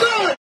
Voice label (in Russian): golos gol s effektom overdrajva